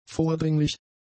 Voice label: "vordringlich", German; adjectives